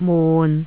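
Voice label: "mun6", Cantonese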